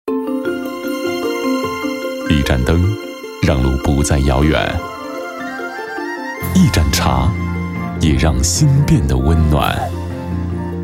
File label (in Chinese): 国语男 品质内敛类; 央视拜年